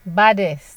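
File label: "baddest", Nigerian Pidgin, female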